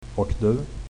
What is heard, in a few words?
how are you reply, swedish